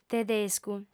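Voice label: "tedescu", female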